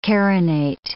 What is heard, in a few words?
"carinate", English